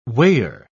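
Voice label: "weigher", British English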